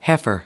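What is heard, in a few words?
"heifer", American English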